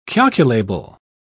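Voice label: "calculable", English, British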